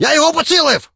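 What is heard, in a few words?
attack, Ukrainian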